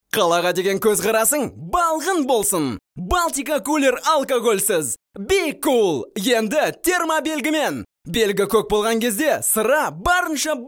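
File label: kazakh male commercial; voice demos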